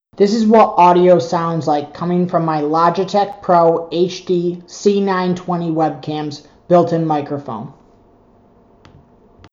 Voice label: Built in Microphone From Logitech HD C920 Webcam